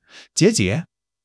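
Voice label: "jie3 jie5", Chinese; 基础汉语40课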